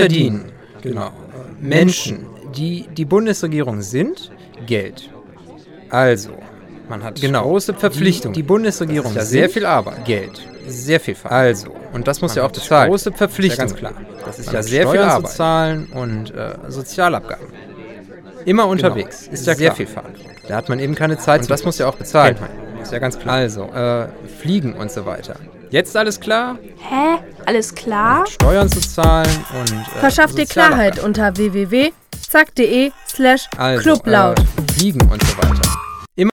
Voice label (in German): Kind Politiker; Spot III